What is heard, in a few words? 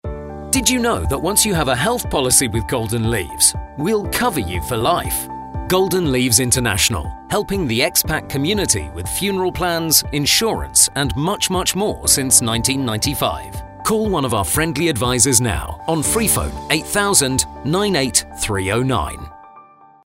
health male